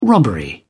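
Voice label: "robbery", British English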